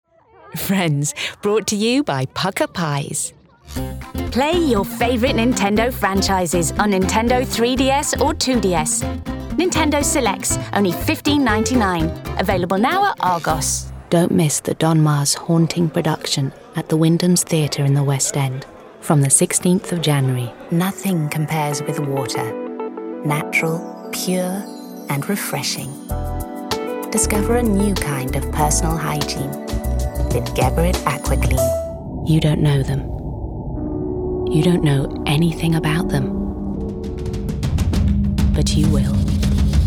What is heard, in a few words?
Commercials Reel